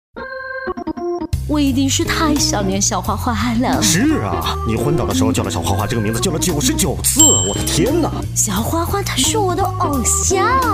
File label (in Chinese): 译制片版; 花花世界